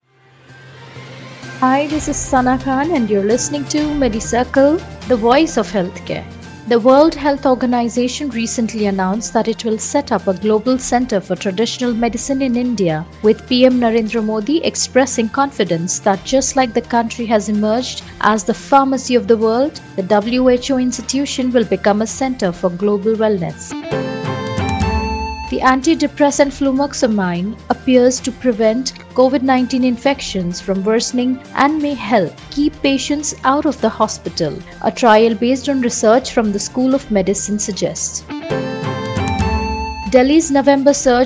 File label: english news